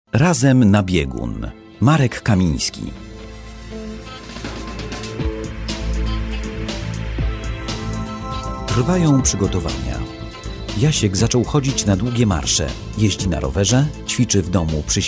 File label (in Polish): M audiobook